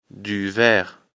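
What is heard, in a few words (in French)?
"vert", French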